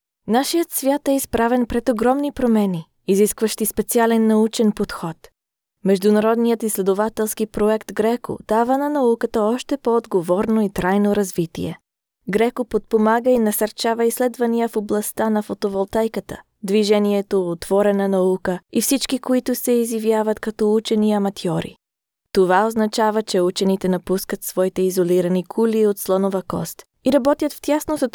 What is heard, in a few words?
Bulgarian